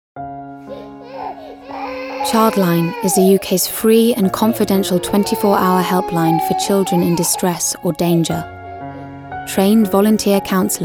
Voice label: Ad; Childline